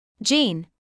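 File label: "gene", British English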